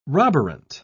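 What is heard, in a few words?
"roborant", British English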